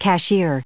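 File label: "cashier", English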